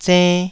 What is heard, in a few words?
"zenn2", taiwanese syllables